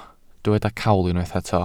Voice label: "cawl", Welsh

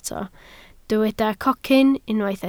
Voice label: "cocyn", Welsh

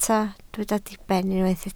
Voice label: "diben", Welsh

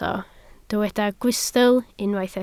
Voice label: "gwystl", Welsh

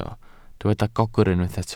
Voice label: "gogr", Welsh

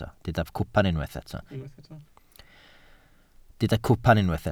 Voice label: "cwpan", Welsh

